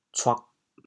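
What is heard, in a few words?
"cuag4", Teochew, chaoyang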